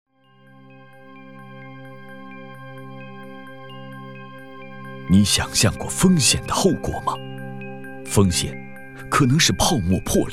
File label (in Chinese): 男 紧张悬疑专题